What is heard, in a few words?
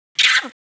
Female leo